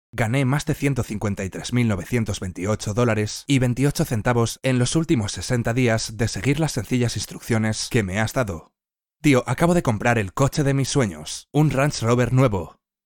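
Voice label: Spanish male; 西班牙语男; 外语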